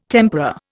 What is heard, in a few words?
"tempera", Indian